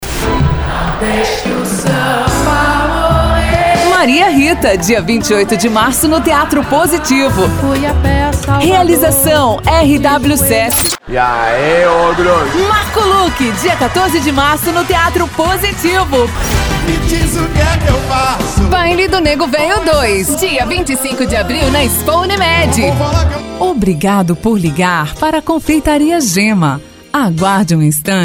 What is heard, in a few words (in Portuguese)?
locutora